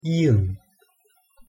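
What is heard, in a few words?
"ᄋ", Korean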